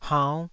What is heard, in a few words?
"haunn5", taiwanese